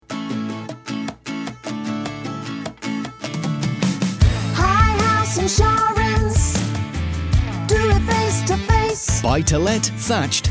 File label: Insurance Advert; Highhouse Insurance